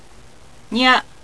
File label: "nya", Japanese